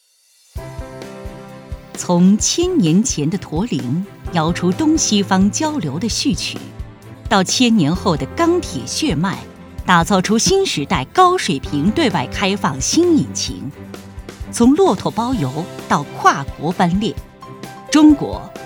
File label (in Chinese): 女政府宣传片; 央视